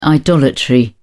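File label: "idolatry", English, British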